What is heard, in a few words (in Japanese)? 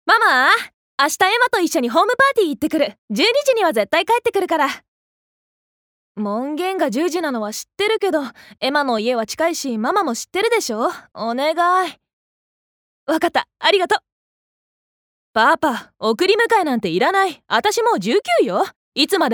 外画